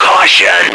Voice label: caution, radio, male